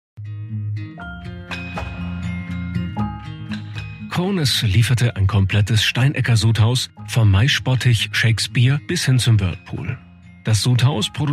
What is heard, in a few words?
narrational mix